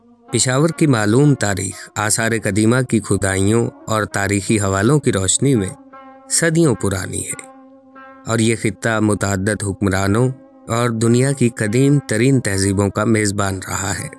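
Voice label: Urdu Pakistan male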